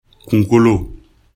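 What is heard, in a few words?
"kunkolo", Bambara; corps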